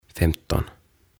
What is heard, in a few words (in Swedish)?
"Femton" "Viisitoista"